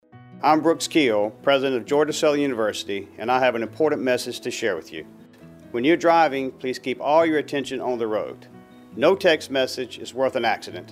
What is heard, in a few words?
announcements